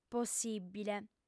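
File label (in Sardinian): "possìbile", female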